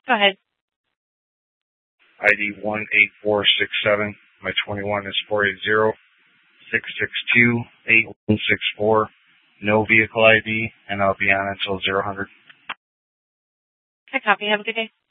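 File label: police department Dispatch, scanner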